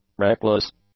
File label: "reckless", English; adjectives